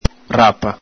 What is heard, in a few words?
"rapa", Venetian